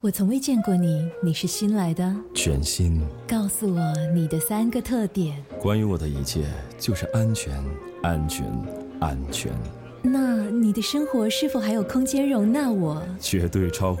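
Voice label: Mitsubishi Outlander Mandarin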